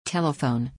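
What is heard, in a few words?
"telephone", English word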